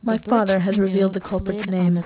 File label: test mixture, speech separation